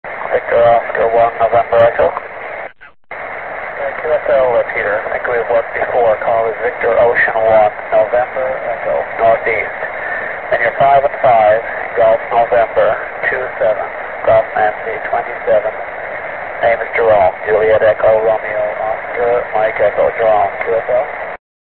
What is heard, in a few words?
qso